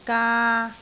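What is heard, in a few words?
"gaa3", Cantonese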